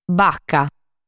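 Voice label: "Baca", Sardinian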